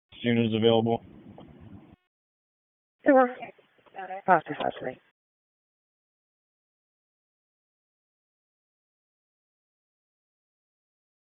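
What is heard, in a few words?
Police District Dispatch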